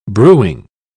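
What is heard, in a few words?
"brewing", English, British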